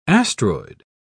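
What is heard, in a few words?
"astroid", British English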